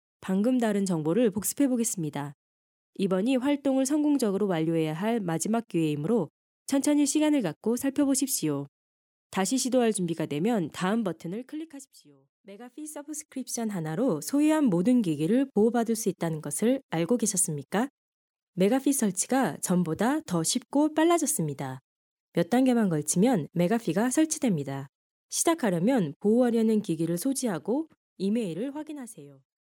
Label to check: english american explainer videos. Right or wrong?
Wrong